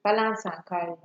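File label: "balanceancarry", Nigerian Pidgin, female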